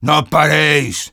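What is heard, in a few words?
Sniper cart moving forward offense soft, Spanish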